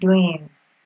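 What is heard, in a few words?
"doings", Nigerian Pidgin, female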